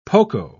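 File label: "poco", British English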